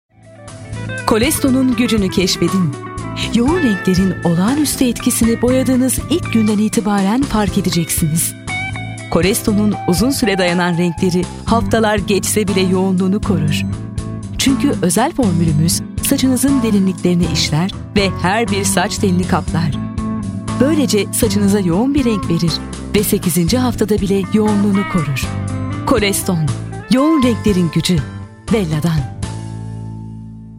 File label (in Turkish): enerjik tempolu